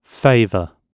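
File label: "favour", British English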